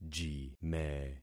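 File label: "jime", concatenated syllables; Affixing experiment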